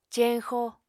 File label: "ceñxo", Apatani